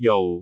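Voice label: "jou4", Cantonese; google B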